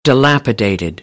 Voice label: "dilapidated", American; ə - ɪ

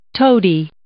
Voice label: "toady", English

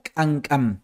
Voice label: "K'ank'an", Maya; amarillo

